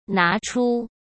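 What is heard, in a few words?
"拿出", Mandarin Chinese; chinese-flashcards; hsk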